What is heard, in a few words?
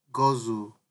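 "gozzle", Nigerian Pidgin, male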